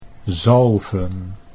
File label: "zalven", Dutch